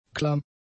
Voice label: "klamm", German; adjectives